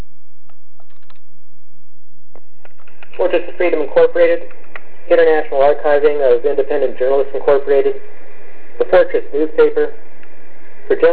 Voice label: Phone Message